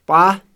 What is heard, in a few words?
"cow", Kham